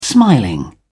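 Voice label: "smiling", British English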